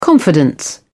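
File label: "confidence", British English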